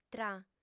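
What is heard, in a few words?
"tra", Sardinian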